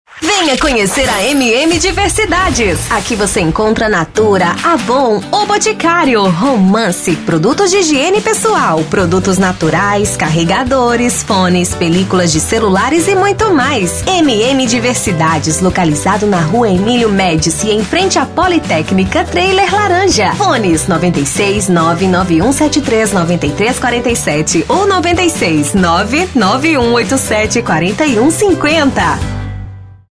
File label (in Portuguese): locutora, comerciais; M M DIVERSIDADES